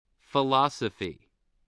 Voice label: "philosophy", voice, English